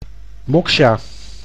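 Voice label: "Moksha", Dutch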